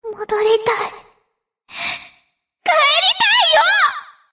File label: Enemy Voice Damaged Under Attack; German Escort Princess